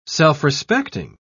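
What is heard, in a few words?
"self-respecting", British English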